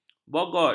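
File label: "bogot", Malay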